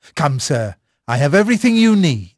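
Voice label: English male; Pirates Of The Caribbean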